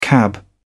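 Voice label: "cab", British English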